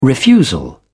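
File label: "refusal", British English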